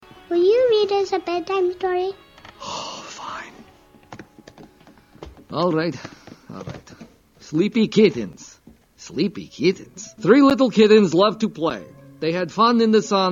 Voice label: Bedtime story; Despicable

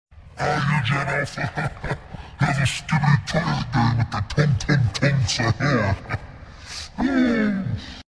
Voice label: tung tung sahur Demon voice effect

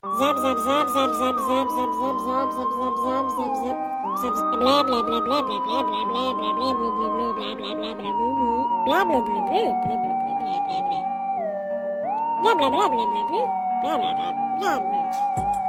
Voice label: Alien speaking Zip Zap Zop; meme

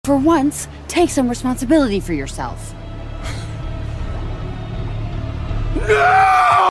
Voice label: The Deep Yelling Nooo Meme Sound Effect

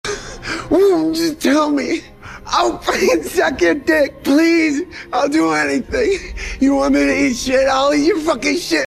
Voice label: Begging; Homelander

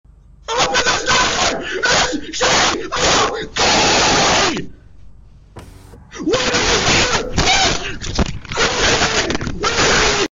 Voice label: Ring Camera Freakout; Harry Dresden

